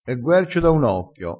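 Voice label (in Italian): "guercio", crespinese dialettale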